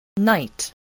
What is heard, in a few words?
"night", voice